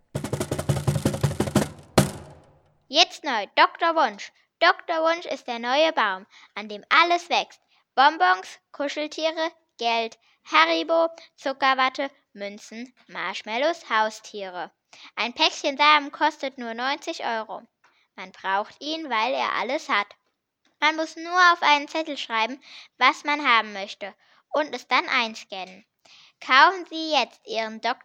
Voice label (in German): Werbung; earsinnig hoeren 2020; butzbach